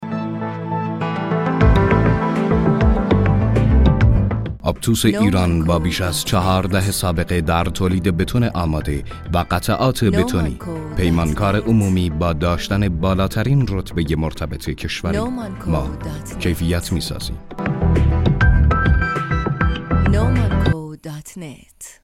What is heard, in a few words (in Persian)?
ضبط صدای تبلیغاتی; آپتوس ایران